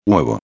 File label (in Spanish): "huevo", espanhol; palavra do dia